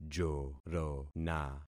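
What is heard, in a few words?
"jorona", concatenated syllables; Affixing experiment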